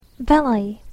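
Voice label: "valet", English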